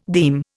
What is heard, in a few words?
"deem", Indian